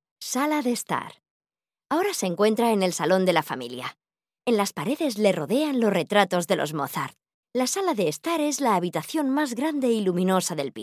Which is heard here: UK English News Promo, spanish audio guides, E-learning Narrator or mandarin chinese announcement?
spanish audio guides